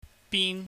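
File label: "bin", Cantonese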